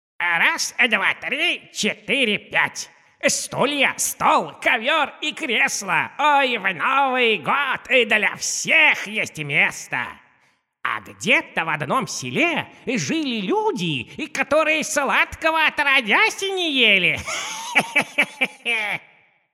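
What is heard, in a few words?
cheerful old man voice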